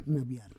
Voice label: "nabiar", Roon

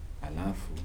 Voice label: "then", Kibembe

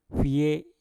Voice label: "fiye", Mpur; penyu laut

